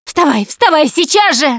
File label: revive friend loud, Russian; Producer